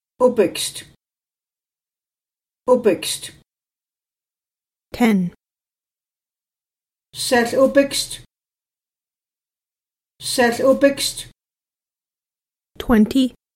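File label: Numbers 10 100, secwepemc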